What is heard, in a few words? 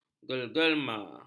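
"gegelema", Malay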